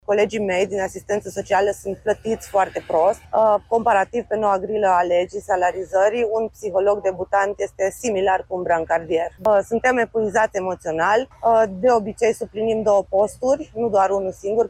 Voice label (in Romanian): voce voxuri protest; SANITAS; proteste bucuresti lege salarizare retragere